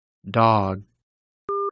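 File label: "dog", American English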